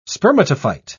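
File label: "spermatophyte", British English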